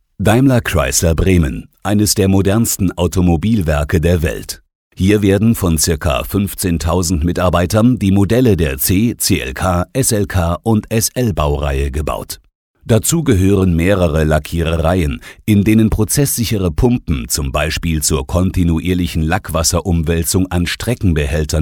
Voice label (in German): Imagefilm; Mercedes